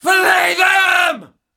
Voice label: Female Taunt, Cannibal, dialogue; Trunk Act One Monster